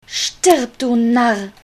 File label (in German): Narr